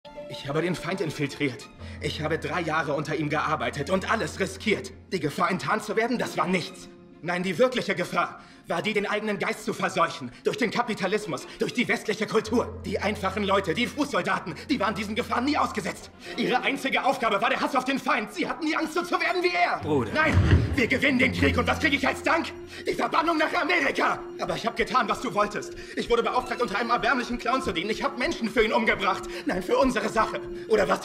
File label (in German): Synchron Hauptrolle; Lip-Sync (Synchron); German